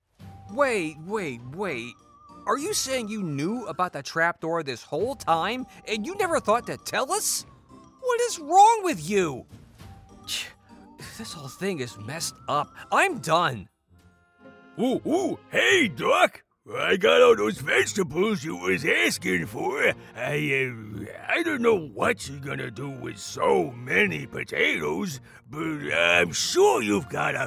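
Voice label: Animation Demo, voice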